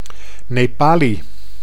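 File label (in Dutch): "Nepali", Dutch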